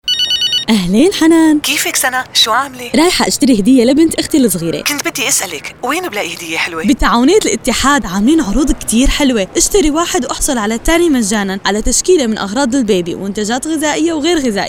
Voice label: syrian, syrian voiceover